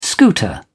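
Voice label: "scooter", British English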